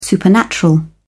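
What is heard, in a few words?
"supernatural", English, British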